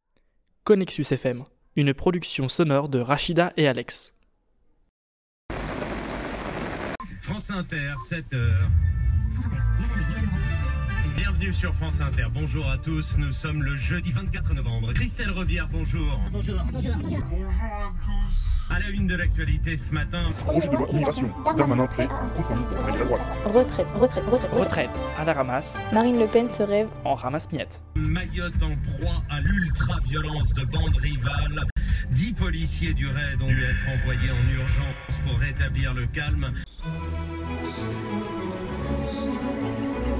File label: Radio; conexus; sound creation